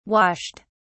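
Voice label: "washed", British English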